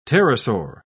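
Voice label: "pterosaur", British English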